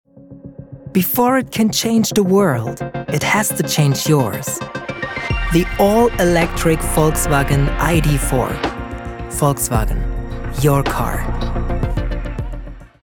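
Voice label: German English, male, commercial, accent of germany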